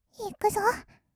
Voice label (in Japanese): "いくぞ", kawaii voice; ASMR; 色々なワンショット